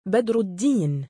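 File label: male "badruddiin", Arabic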